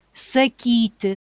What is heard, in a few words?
"sakyti", Lithuanian